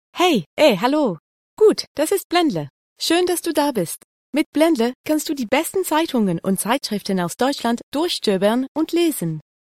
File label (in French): Allemand Girl next door